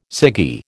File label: "ciggy", American